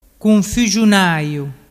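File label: "cunfuxunaiu", Vernazzese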